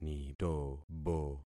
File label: "nitobo", concat syllables; Affixing experiment